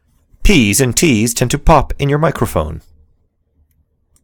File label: Pop Filter